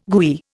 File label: "gooey", Indian